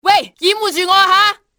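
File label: cover me, cantonese female; XBOX; enemy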